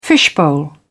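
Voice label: "fishbowl", British English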